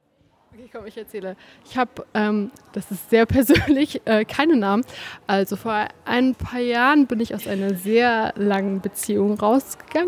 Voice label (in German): stimme w